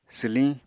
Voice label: "swliñ", apatani